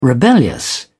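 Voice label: "rebellious", British English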